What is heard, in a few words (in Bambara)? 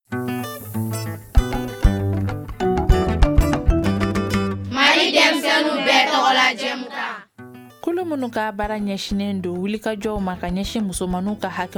magazine bamanankan